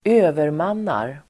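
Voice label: "övermannar", Swedish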